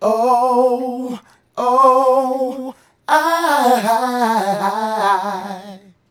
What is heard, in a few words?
Male Vocal; Sonic Foundry (Sony Creative Software); Mac Money